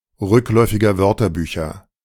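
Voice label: "rückläufiger Wörterbücher", German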